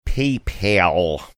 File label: paypal in a funny manner